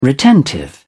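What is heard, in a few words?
"retentive", English, British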